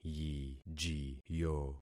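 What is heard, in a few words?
"yijiyo", concatenated syllables; Affixing experiment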